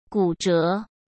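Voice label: "骨折", Mandarin Chinese; hsk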